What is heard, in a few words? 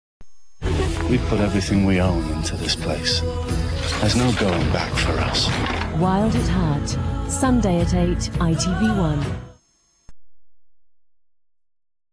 promo movies; itv1